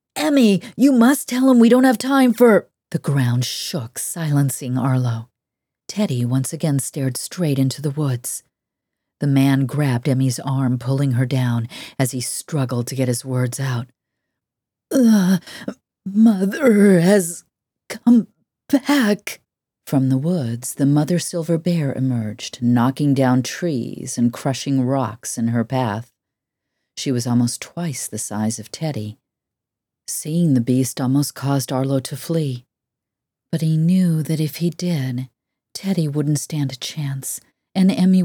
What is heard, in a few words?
Narration YA Fantasy